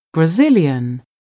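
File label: "brazilian", English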